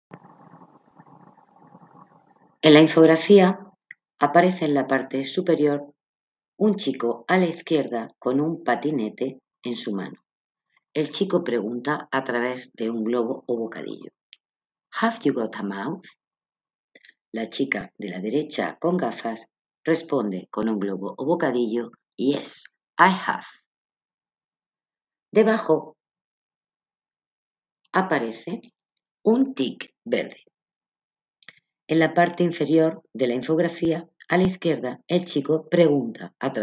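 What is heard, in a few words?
count to five in french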